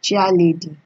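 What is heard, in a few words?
"chair lady", Nigerian Pidgin, female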